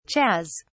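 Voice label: "chas", British English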